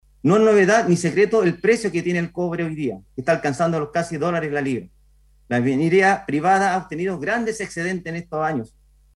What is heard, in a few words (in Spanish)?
cuña